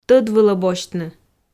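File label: "тӧд вылӧ босьтны", Komi, female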